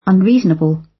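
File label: "unreasonable", British English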